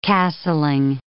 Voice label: "castling", English